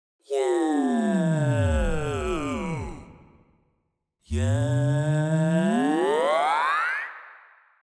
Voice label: Frequency shift automated, vocal effects